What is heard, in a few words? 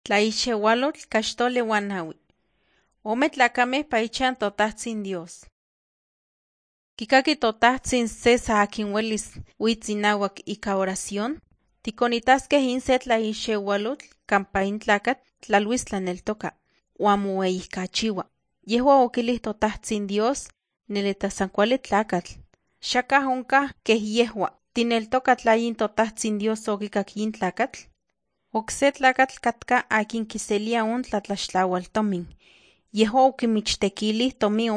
nahuatl guerrero tlapa; the bible league nt drama